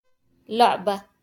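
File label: "لعبة" Arabic; game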